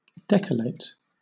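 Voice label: "decollate", British English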